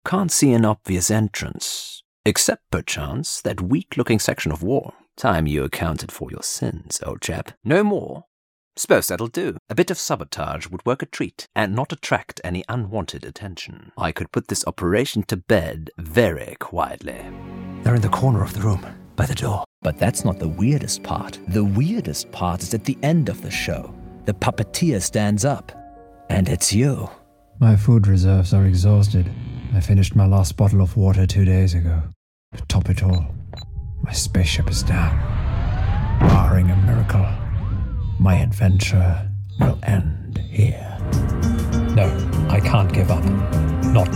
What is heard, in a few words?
English Video Games Voicereel